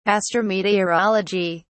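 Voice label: "astrometeorology", British English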